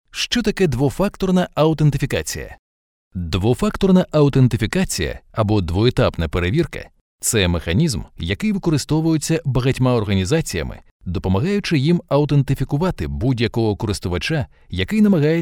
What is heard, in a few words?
ukrainian e-learning